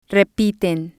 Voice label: "repiten", spanish

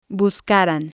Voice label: "buscaran", spanish

